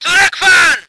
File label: voice ger vehicle